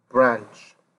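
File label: "branch", Nigerian Pidgin, male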